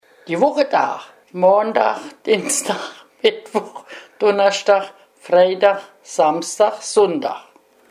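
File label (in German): wochentage, O-Ton Hunsrück; Belg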